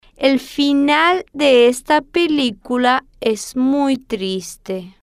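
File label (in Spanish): el Final De Esta Pelicula Es Muy Triste, Spanish, female, slow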